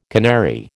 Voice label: "canary", American